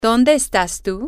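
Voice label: where are you, spanish, female; John Jane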